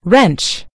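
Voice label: "wrench", British English; gcompris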